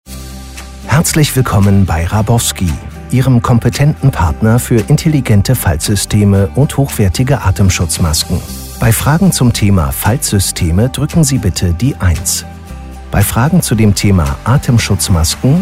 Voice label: ivr